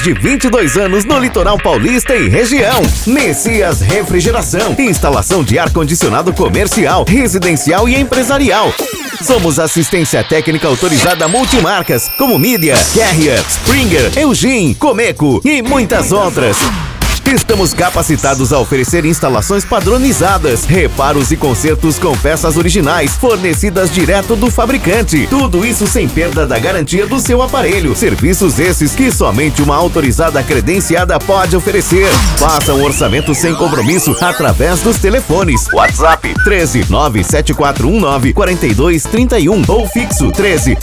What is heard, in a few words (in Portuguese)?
Spot Especial